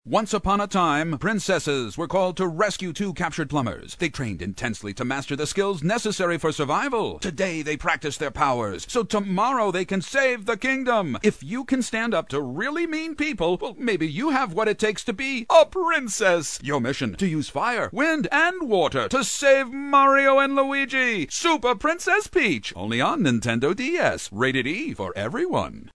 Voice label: GAME PROMO; Tongue in Cheek; animation